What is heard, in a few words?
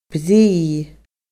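"brigh", Scottish Gaelic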